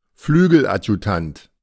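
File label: German "Flügeladjutant"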